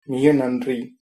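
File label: thanks, tamil, male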